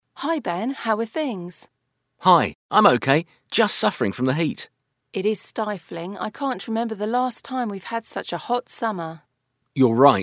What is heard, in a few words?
small talk Conversation